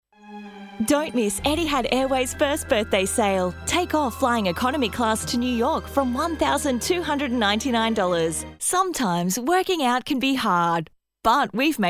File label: Retail Voice Over